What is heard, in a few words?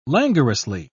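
"languorously", British English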